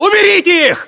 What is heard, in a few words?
order attack infantry, battlechatter, ru